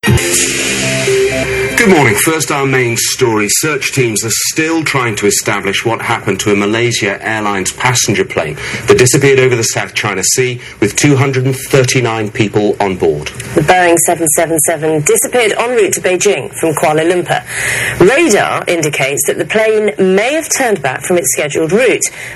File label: Missing Plane News